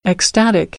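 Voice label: "ecstatic", American English; AmericanBritish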